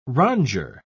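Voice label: "rondure", English, British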